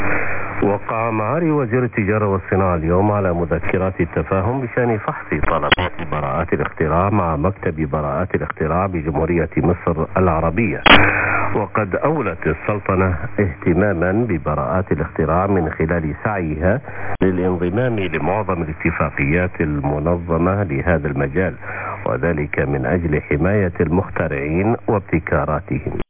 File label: Radio Oman Arabic